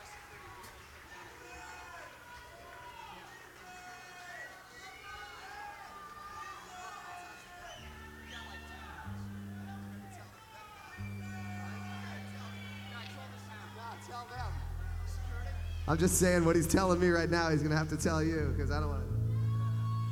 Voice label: Announcement; Providence, RI; Brown University